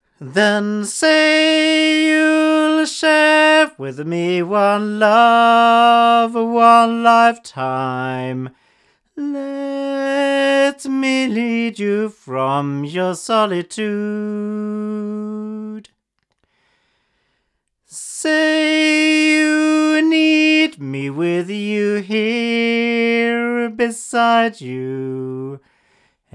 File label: back male; CT NakedEye; crowley tripp